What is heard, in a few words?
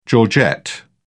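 "georgette", British English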